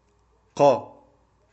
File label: "Qaaf", arabic; arabic-malayalam; അക്ഷരമാല